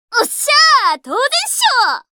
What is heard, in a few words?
Voice victory